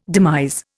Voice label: "demise", Indian

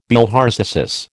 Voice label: "bilharziasis", American

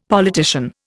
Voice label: "politician", Indian; Dictionary

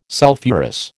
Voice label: "sulphurous", American

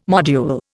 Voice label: "module", Indian

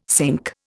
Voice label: "cinque", Indian

